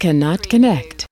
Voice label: US female cannot-connect; lynne publishing